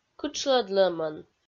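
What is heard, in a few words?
"кытшлӧдлӧмӧн", Komi, female; kpv; audio dict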